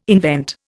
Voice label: "invent", Indian